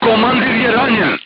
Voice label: voice message commander wounded, crew tanks serbian